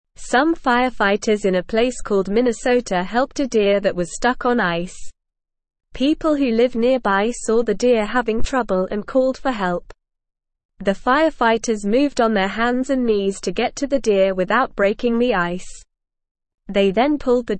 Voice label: English Lower Intermediate NORMAL Reading; Fire People Rescue Deer Stuck on Ice